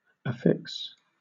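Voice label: "affix", British English; pronunciations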